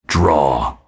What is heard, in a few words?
Draw, PvP Announcer